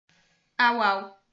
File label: "au au", portuguese